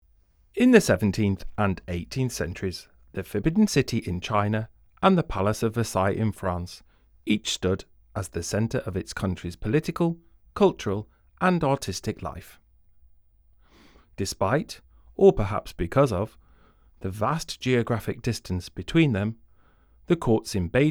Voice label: English, audio guide; versailles